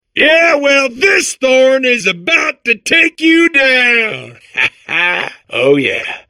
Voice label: babble; portal2